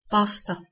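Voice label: "ph2sth2", Proto-Indo-European; eastern origins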